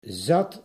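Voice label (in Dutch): "zat", Dutch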